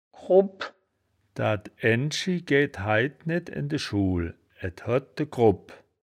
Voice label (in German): "krubbp", wittlich